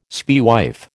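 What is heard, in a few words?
"spaewife", American English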